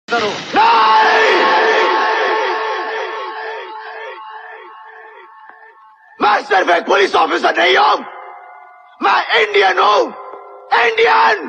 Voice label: old dialogue ringtone new dialogue ringtone indian movie dialogue; im indian